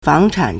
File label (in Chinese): "fang2chan3", Chinese